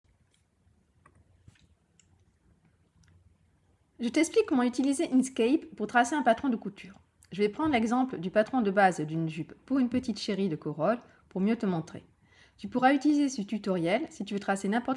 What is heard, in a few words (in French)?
Voix off